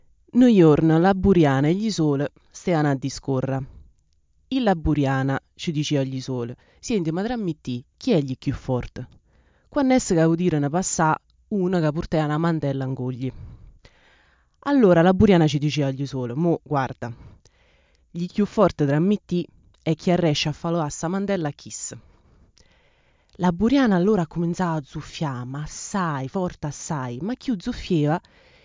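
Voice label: Italian dialect, female, Frosinone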